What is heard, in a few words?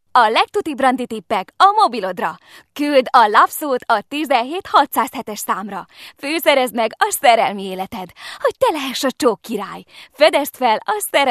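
Hungarian female voice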